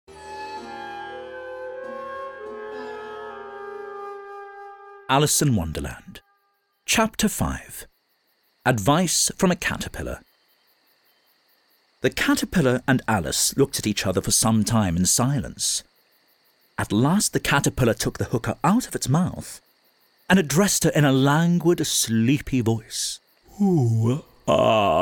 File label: Narration English; voice